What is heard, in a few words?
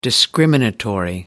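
"discriminatory", British English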